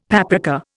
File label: "paprika", Indian; Dictionary